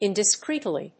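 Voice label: "Indiscreetly", English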